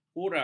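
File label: "kura", Malay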